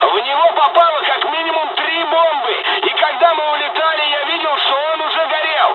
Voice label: dialog, dialogs russian; us midway; War Thunder